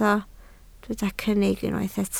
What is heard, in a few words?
"cynnig", Welsh